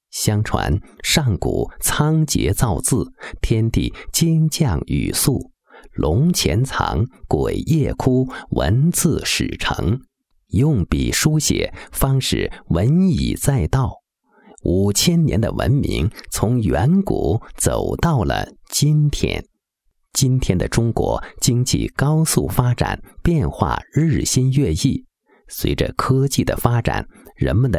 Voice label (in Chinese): 男, 国语男声配音; 毛笔样